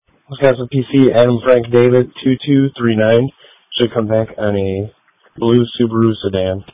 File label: Sheriff Dispatch